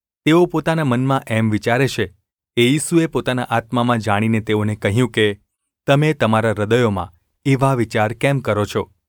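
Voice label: gu ulb mrk, Gujarati; mrk 2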